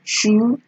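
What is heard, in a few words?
"shu", Nigerian Pidgin, female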